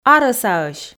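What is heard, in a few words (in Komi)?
"арӧсаӧсь", Komi, female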